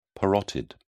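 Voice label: "parotid", British English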